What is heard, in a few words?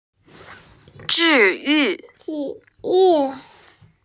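"zhi yu", Mandarin Chinese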